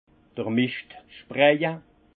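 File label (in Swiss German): "mischtspraje", alsace Haut-Rhin; vin; épandage de fumier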